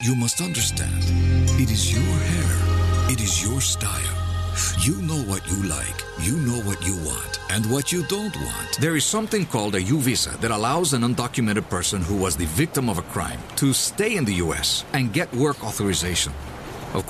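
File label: English, Spanish Accent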